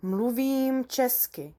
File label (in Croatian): češki; POP UP Zagreb